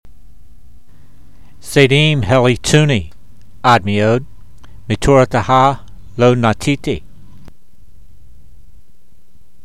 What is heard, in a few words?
voice, hebrew language; Psalm 119:51; zaydeem heyleetsunee ad-meod, meetorateha lo nateetee